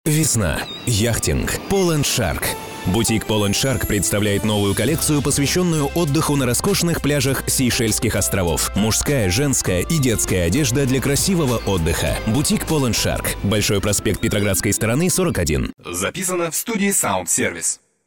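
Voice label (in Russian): advert, Женщина, Среднего возраста, Русский, Бархатный, Средний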